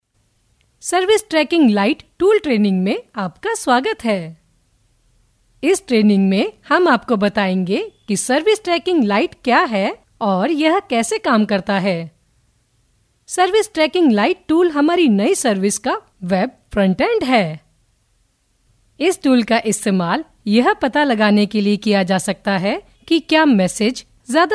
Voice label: locutora india hindi voiceover; locutora hindú